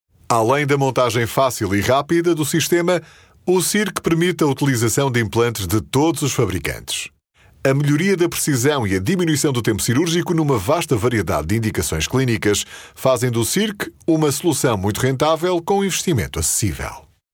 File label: portuguese narration